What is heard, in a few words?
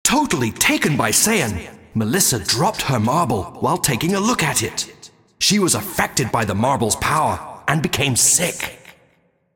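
cinematic voice, Spanish; lineage2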